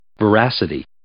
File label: "veracity", English